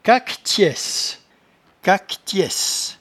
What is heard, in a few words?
"caquetiaisse", patois jura